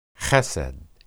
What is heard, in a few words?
"hesed", Hebrew